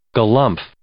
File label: "galumph", English